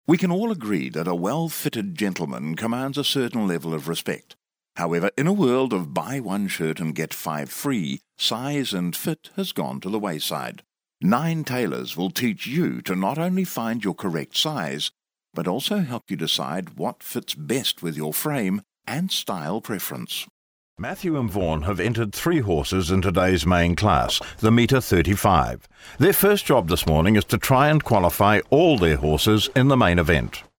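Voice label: English New Zealand, male, voice over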